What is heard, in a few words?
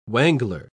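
"wangler", English, British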